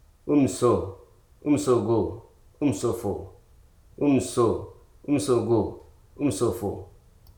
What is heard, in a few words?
"important", Hruso